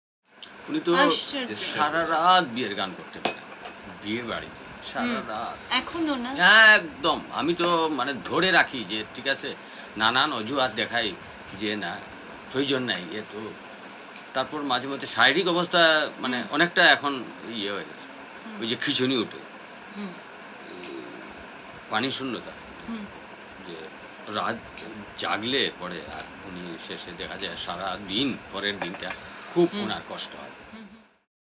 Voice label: Conversation; Travelling Archive Records